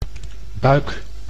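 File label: "buik", Dutch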